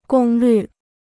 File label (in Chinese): "功率", Chinese